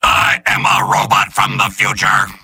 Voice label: soldier robot; mvm

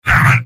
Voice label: negative vocalization, soldier; tf2; garrysmod; mvm

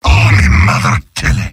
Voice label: demoman m negative vocalization; tf2; garrysmod; mvm

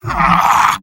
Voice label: sniper negative vocalization; tf2; mvm

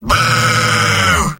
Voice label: jeers; engineer; mvm

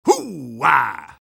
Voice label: positive vocalization; soldier; garrysmod; tf2-content-gmodcontent

